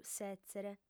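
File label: "sètzere", female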